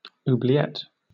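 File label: "oubliette", British English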